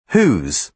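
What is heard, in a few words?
"whose", British English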